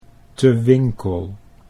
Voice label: "Te Winkel" Dutch